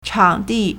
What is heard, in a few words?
"chang3di4", Chinese